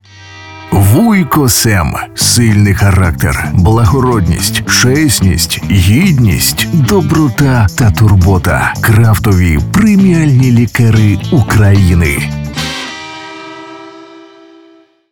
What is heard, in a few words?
ukrainian commercial